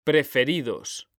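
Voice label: "preferidos", Spanish, male; mekanta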